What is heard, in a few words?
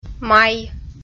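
"май", Komi, female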